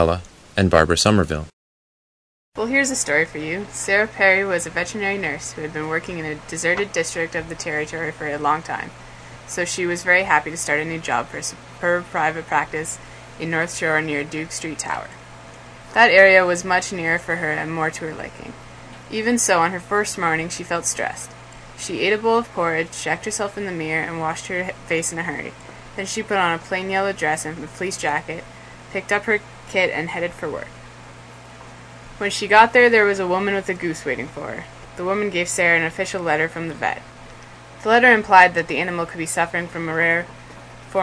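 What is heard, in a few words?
ontario, International Dialects of English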